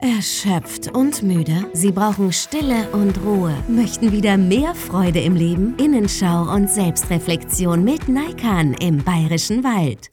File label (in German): Funkspot; Naikan-Zentrum Bayrischer Wald